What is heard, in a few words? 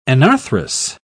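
"anarthrous", British English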